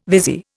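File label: "vizier", English word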